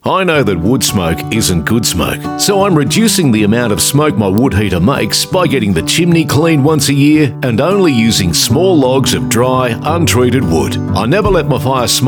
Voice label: radio ad; woodsmoke; tips